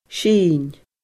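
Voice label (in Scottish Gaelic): "sinn", Scottish Gaelic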